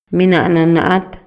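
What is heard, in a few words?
Maya, male; COGNICIÓN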